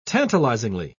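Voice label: "tantalizingly", British English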